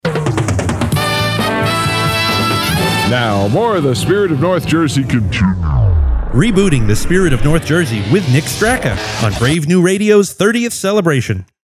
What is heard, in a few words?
name shout; Hit Radio; Reboot